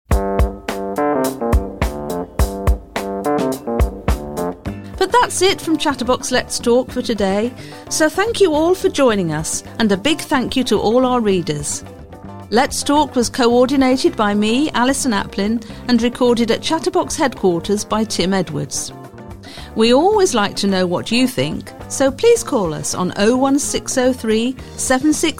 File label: Closing Announcement